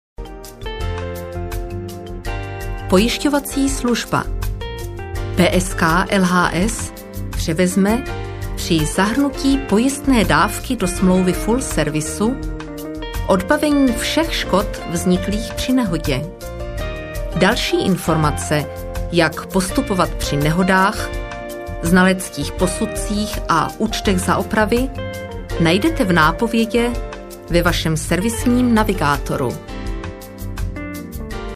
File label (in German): Tschechien W